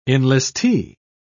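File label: "enlistee", British English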